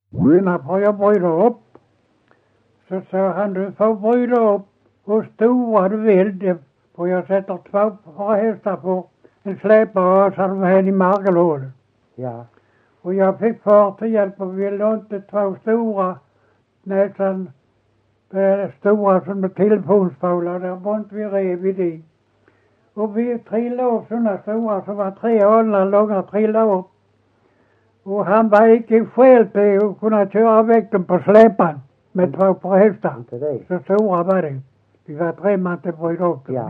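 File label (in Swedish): Ekeby Skåne, dialekter; Folkminnen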